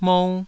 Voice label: "mou2", taiwanese syllables

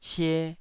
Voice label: "sie2", taiwanese Syllables

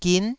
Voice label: "gin9", taiwanese

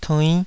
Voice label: "tuinn5", Taiwanese

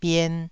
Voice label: "bien2", taiwanese

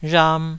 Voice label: "rham2", taiwanese

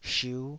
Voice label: "shiu5", taiwanese Syllables

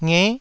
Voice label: "nge9", taiwanese syllables

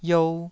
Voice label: "iou2", taiwanese syllables

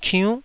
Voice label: "kiunn9", taiwanese syllables

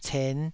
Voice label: "cen5", taiwanese Syllables

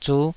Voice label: "zuh5", taiwanese

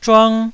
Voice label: "zhuang1", taiwanese

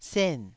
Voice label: "sen4", taiwanese

